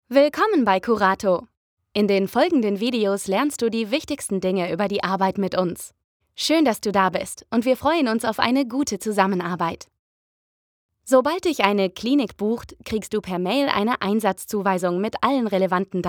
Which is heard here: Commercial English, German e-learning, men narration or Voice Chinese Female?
German e-learning